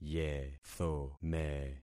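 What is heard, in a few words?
"yethome", concatenated syllables; Affixing experiment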